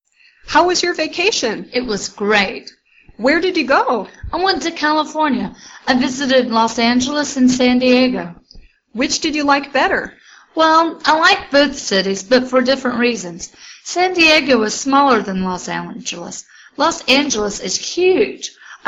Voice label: Dialog

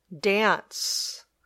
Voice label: "dance", English

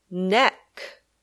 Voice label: "neck", English

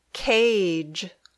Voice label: "cage", English

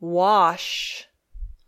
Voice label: "wash", English

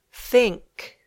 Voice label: "think", English

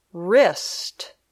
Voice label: "wrist", English

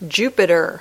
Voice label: "Jupiter", English

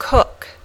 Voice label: "cook", English

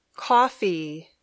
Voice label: "coffee", English

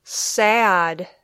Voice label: "sad", English

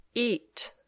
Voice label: "eat", English

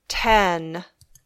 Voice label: "ten", English

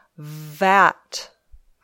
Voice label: "vat", English

